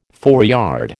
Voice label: "foreyard", American